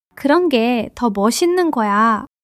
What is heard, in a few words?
joy; monologue